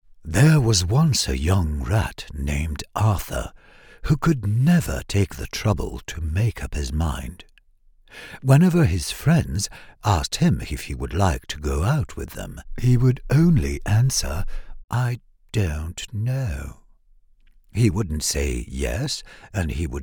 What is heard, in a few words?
Narr ENG RP; Arthur